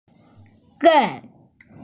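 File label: "zhan4", Mandarin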